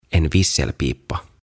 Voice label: "En visselpipa" "Pilli"

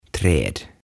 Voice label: "Träd", "Puut"

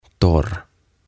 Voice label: "Torr" "Kuiva"

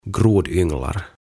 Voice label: "Grodynglar", "Nuijapäät"

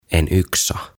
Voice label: "En yxa" "Kirves"

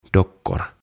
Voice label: "Dockor", "Nuket"

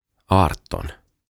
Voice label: "Arton" Kahdeksantoista

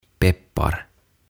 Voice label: "Peppar" Pippuri

